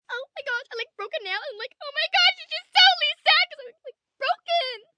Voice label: I Broke A Nail, 1 girl, 12 yrs; Talking Sound Effects